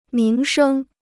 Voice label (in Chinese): "名声", Chinese